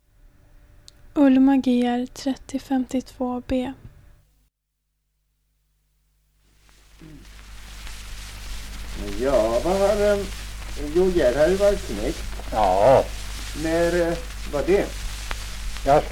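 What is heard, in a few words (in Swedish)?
Rinna Östergötland, dialekter; Folkminnen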